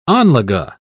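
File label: "anlage", British English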